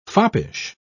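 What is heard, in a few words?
"foppish", en, uk British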